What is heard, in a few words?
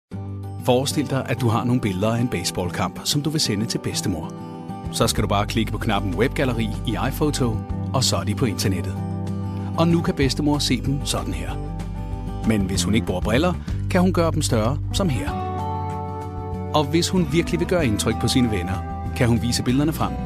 male danish voice over sales